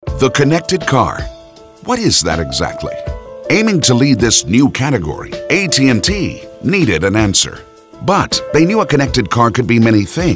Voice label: Narration